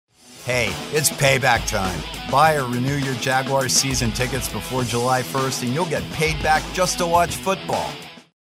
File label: attitude